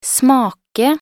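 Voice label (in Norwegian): "smake", Norwegian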